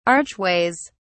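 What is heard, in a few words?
"archways", English, British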